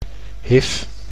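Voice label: "HIV", Dutch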